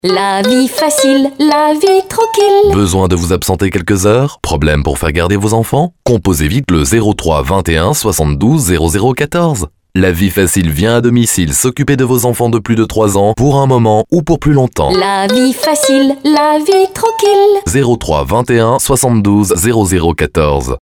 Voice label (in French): radiopub spot